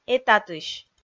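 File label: "этатысь", Komi, female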